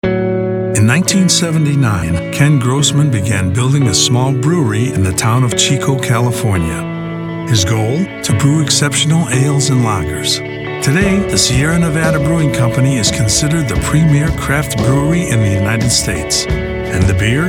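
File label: narration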